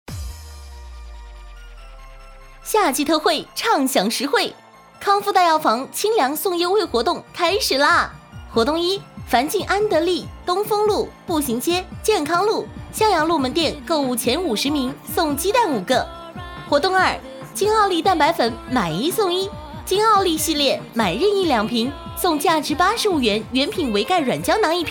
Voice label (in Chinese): 促销广告